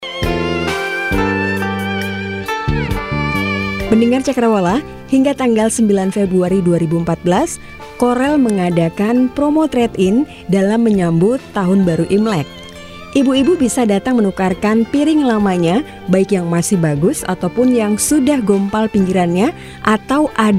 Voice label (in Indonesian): Iklan Radio Corelle Iklan Adlibs